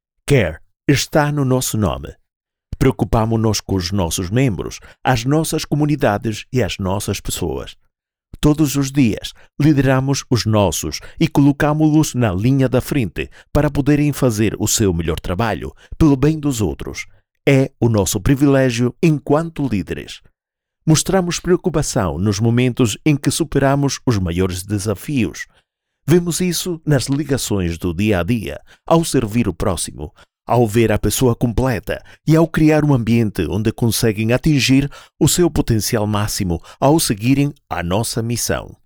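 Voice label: European Portuguese; UHC Leadership Global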